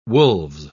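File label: "wolves", British English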